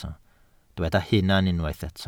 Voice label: "hunan", Welsh

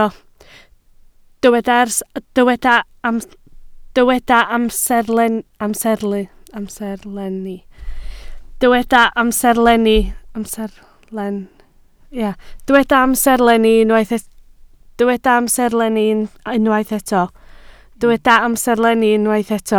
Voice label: "amserlenni", Welsh

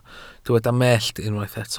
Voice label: "mellt", welsh

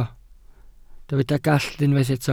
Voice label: "gallt", welsh

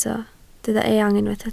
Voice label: "eang", Welsh

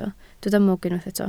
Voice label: "mwg", Welsh

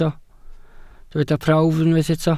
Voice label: "prawf", Welsh

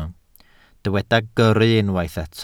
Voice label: "gyrru", Welsh

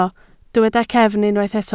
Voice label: "cefn", Welsh

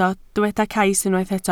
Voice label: "cais", Welsh

